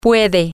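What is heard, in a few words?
you are able to can, Spanish, female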